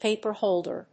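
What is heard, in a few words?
"Paper holder", English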